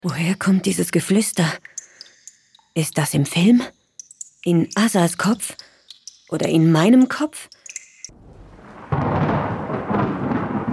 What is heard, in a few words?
Trailer